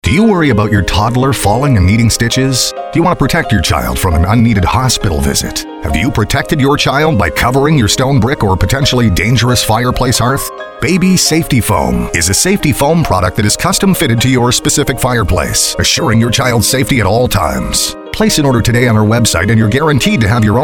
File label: radio ad